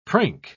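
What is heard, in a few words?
"prink", British English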